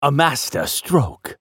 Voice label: spell cast effort; masteryi